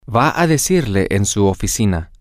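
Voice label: she is going to tell him in your office, spanish, male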